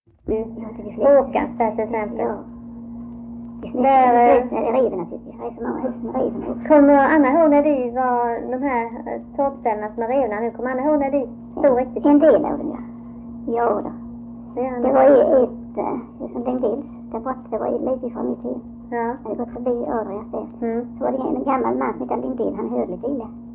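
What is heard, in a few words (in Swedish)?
Öljehult Blekinge, dialekter; Folkminnen; Svenska sagor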